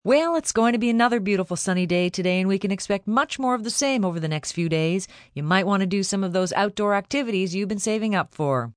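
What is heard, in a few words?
Weather Sun F, Broadcast, weather, female weather report, beautiful, sunny day, news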